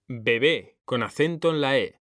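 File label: "BEBÉ", Spanish, male; mekanta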